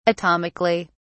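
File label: "atomically", English, uk British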